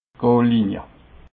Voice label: "goollinie", alsace

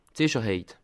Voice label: "sicherheit", alsace

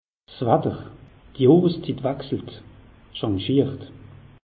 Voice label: "watter", alsace; meteo

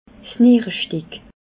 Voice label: "nierestick", alsace

